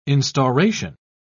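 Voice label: "instauration", British English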